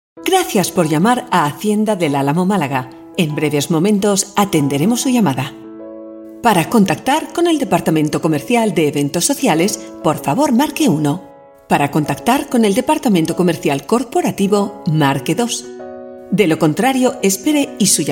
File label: European Spanish telephone